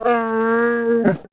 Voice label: wookiee happy, creatures; Star Wars Obi-Wan; XBOX